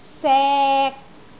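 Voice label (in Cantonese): "sek3", Cantonese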